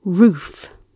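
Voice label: "roof", British English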